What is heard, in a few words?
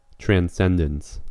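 "transcendence", US English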